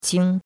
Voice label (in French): "qing1", chinois; Cours-de-Chinois